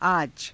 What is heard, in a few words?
"aaja", hindi; [副]今日; आज